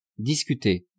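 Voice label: "discutez", French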